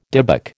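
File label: "dybbuk", American English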